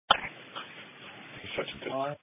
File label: EMS Dispatch